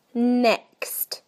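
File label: "next", British English; PictureDictionary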